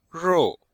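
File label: "rou4", Mandarin Chinese; Cours de Chinois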